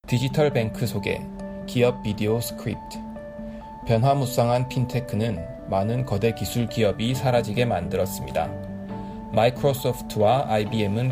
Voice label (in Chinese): 韓語男聲, KOREAN; IBM